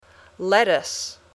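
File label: "lettuce", English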